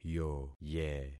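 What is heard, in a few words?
"yoye", concatenated syllables; Affixing experiment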